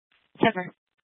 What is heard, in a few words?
Tempe PD, scanner; A04 South